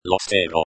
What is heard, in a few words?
lo stero, lojban